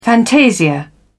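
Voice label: "fantasia", British English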